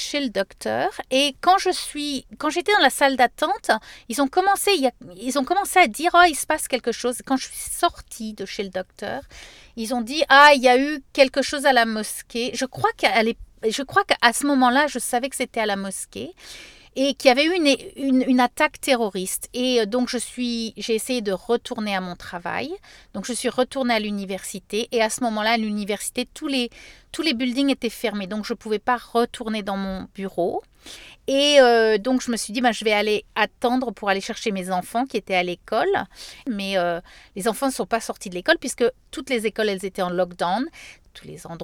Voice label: french vox pop christchurch; sbs